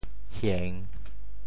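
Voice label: "hieng3", Teochew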